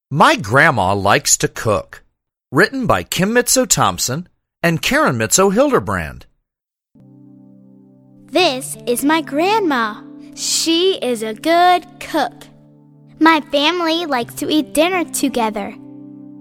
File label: English Narration; My Grandma Likes to Cook